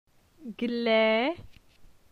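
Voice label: "Gle", French; phonetique; la syllabe e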